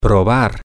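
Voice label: to tast try, Spanish, male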